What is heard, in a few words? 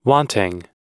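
"wanting", American English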